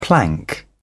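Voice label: "plank", British English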